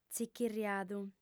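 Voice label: "tzichirriadu", Sardinian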